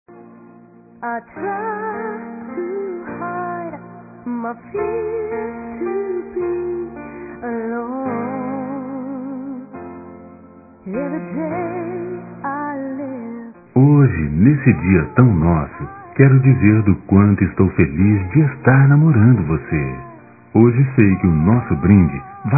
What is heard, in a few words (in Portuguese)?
NEUTRA M; Telemensagens; Dia Dos namorados; masc; Telemensagens 2017